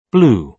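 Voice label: "blue", British English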